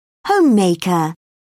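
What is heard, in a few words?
"homemaker", British English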